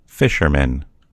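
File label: "fisherman", American English; gcompris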